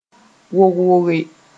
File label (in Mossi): "wog-woge", moore